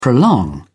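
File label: "prolong", British English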